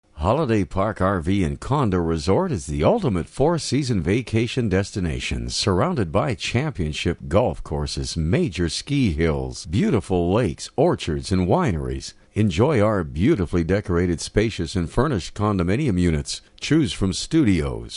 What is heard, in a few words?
voiceover; Holiday Park Resort